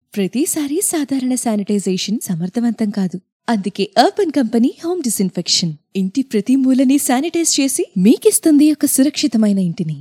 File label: Telugu, Voicereel